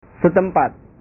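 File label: "setempat", Indonesian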